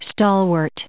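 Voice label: "stalwart", US English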